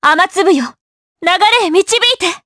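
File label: Vox Skill Japanese; Laudia; kingsraid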